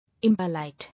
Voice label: "Impolite", English

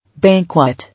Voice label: "Banquet", English

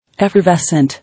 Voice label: "Effervescent", English

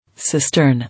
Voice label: "Cistern", English

